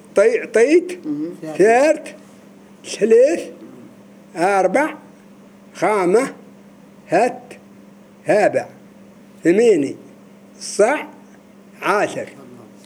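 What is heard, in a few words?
counting 1 to 10 female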